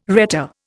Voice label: "rhetor", Indian